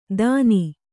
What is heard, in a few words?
"ದನಿ", Kannada